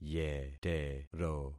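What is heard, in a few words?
"yetero", concatenated syllables; Affixing experiment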